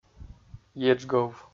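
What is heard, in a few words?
"еджгов", Komi, male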